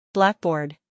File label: "blackboard", English